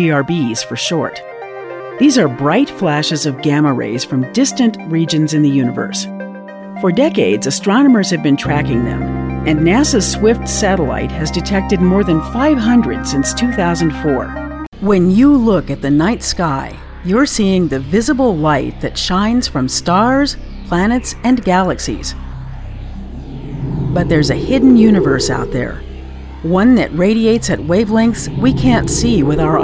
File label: narrator demo; Science/technical narration demo (1 min.); This is a set of clips from a few of the many astronomy and space-science projects I’ve narrated.